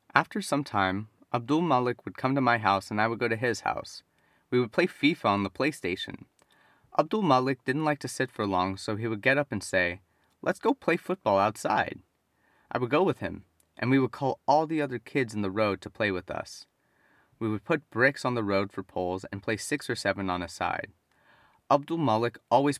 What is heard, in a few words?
Reading; Section 7